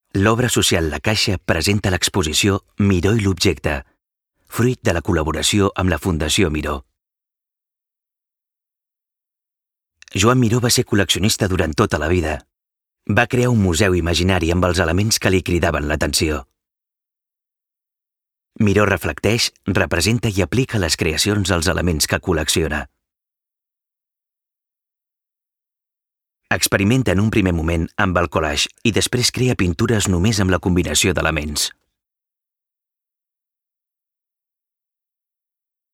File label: male catalan museum comercial